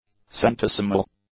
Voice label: "centesimal", English; adjectives